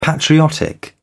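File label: "patriotic", British English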